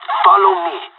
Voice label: radio follow me, pirate; Counter Strike Model Pack; CS:GO

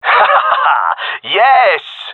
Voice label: cheer, gendarmerie male; vo

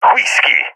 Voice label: code whiskey, balkan; vo; balkan epic

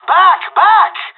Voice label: radio bot fall back; Counter Strike Model Pack; CS:GO

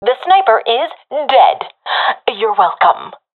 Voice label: i killed sniper, professional fem; vo; Counter Strike Model Pack; CS:GO

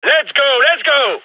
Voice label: radio lets go; gign

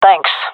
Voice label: thankful, gendarmerie fem

